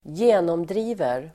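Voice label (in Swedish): "genomdriver", Swedish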